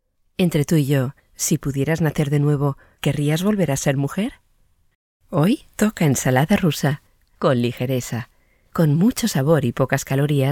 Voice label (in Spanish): es publi